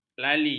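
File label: "lali", Malay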